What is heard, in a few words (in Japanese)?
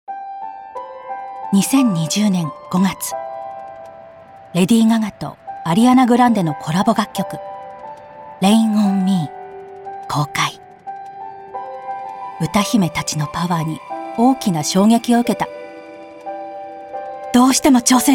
ドキュメント 人物紹介 中音 優しいゆったり 「挑戦する」